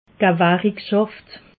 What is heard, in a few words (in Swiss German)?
"gewerikschaft", alsace